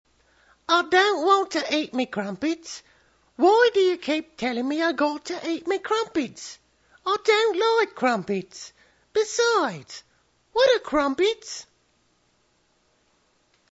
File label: English Kid, voice